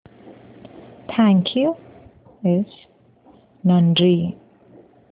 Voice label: Thank you India Tamil